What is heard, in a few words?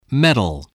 "medal", spelling voice